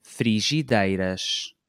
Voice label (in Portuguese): "frigideiras", Portuguese